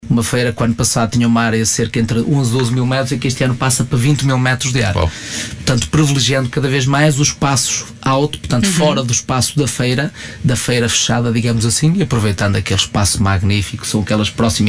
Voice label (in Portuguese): comercial; feira do alvarinho